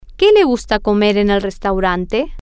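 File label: whatDoesSheLikeToEatAtTheRestau, Spanish, female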